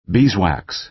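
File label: "beeswax", American English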